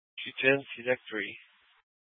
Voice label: FD Dpts VHF 156.240 Patch